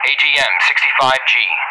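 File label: "AGM-65G", English; Weapon; DCS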